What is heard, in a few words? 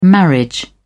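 "marriage", British English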